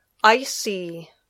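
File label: "icy", English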